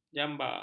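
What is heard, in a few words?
"jamba", Malay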